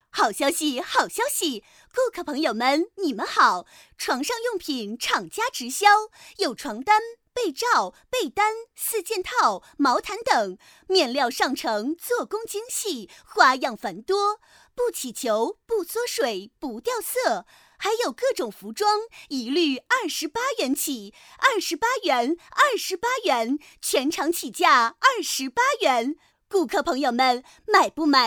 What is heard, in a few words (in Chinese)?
广告促销类清仓